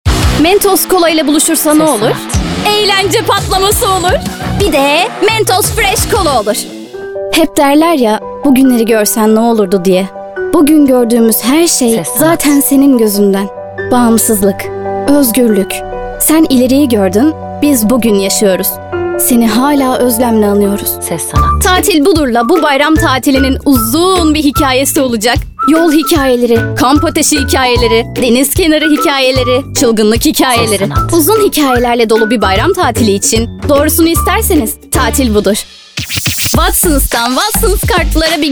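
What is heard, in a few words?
Turkish, seslendirme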